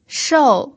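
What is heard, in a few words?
"shou", fourth tone, Mandarin Chinese pinyin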